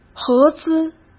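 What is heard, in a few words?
"合资", Mandarin; chinese-flashcards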